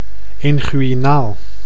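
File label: "inguinaal", Dutch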